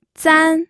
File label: "zan1", pinyin